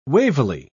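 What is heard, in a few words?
"wavily", British English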